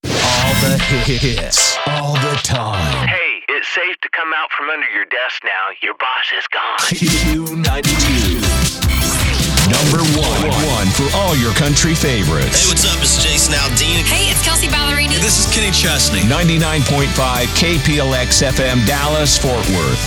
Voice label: Imaging